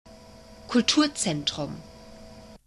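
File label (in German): "Kulturzentrum", German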